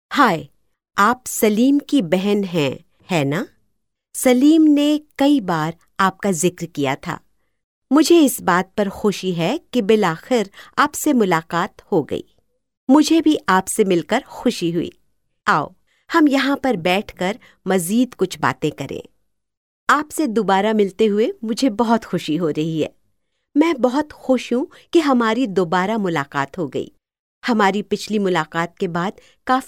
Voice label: Urdu Female

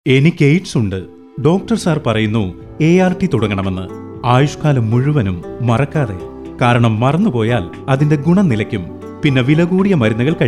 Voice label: Malayalam Male

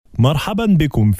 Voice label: Arab Male

